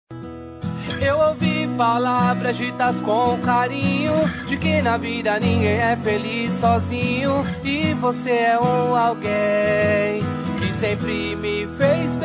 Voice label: irmao masc, Dia dos Pais para Irmão – Voz Masculino

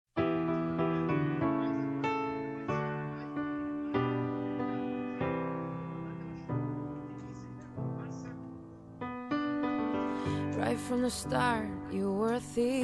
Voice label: maternidade para pai feminina, Telemensagem de Paternidade – Voz Feminina

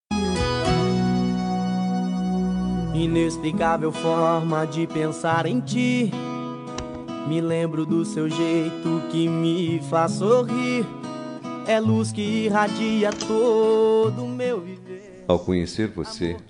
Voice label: namorada, Dia das Mães Romântica para Namorada – Voz Masculina

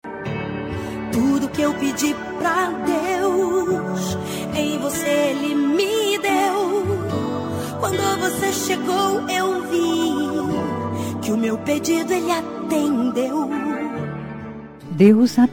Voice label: dia dos namorados gospel namorado, evangélica voz feminina; dia dos namorados para namorado

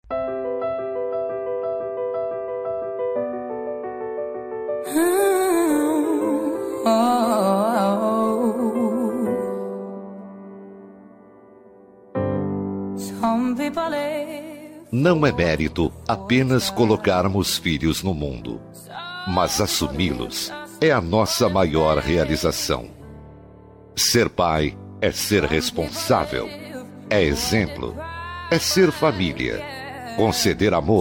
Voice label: masc consideracao, voz masculina; Dia dos Pais

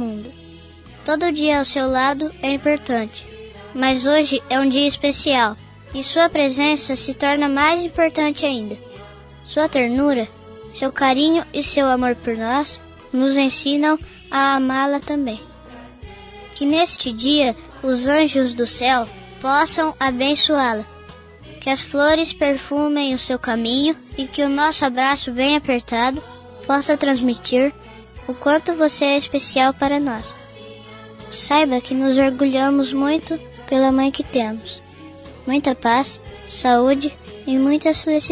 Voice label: infantil mae masc, Aniversário Voz Infantil – Mãe – Voz Masculina

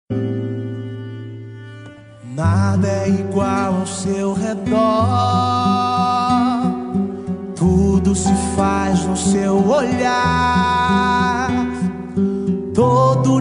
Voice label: rom masc gospel, Telemensagem Romântica Gospel – Voz Masculina

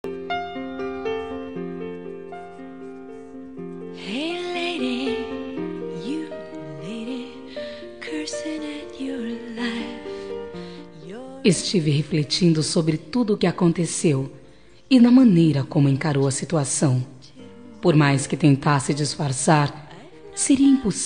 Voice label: aceito desculpas fem, Telemensagem Resposta – Voz Feminina; Telemensagens para todas as ocasiões

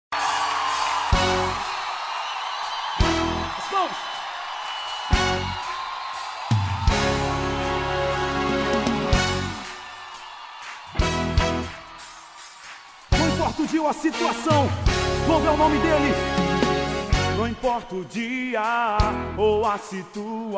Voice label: namorada masc gospel, Voz Masculina; Aniversário Namorada Gospel - Voz Masculina; Telemensagens de Aniversário

